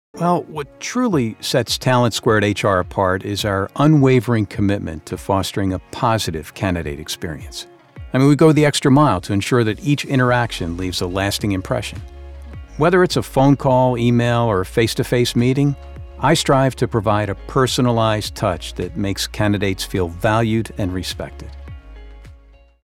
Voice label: Corporate Narration Testimonial Informal Natural